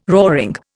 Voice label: "roaring", Indian

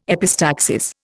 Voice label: "epistaxis", Indian

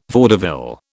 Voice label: "vaudeville", British

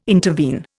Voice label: "intervene", Indian

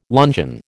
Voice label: "luncheon", American

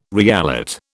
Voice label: "reallot", British

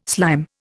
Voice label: "slime", Indian

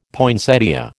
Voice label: "poinsettia", American

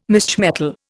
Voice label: "misch metal", Indian

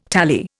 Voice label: "tally", Indian

